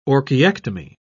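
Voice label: "orchiectomy", English, British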